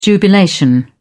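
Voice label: "jubilation", British English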